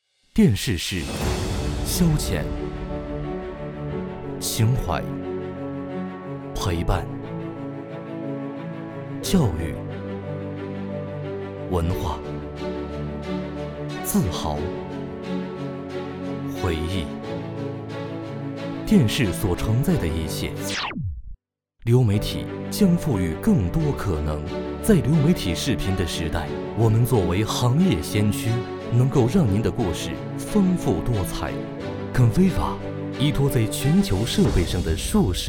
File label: Chinese Mandarin promos